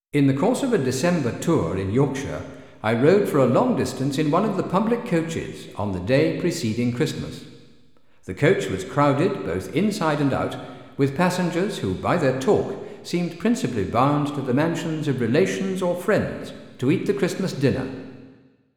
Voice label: Male Voice, EMT140; SQAM